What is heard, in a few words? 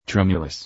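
"tremulous", English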